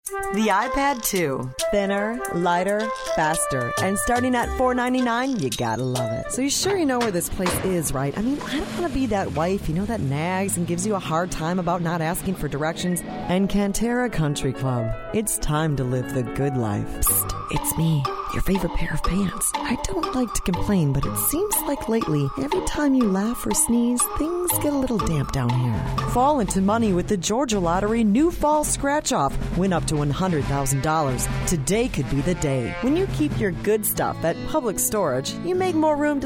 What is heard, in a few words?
Commercial Female; Commercial Voiceover, TV and Radio